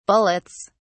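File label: "bullets", British English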